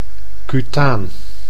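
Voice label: "cutaan", Dutch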